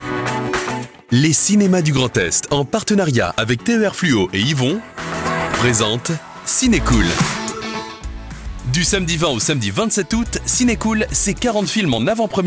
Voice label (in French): spot radio; cincool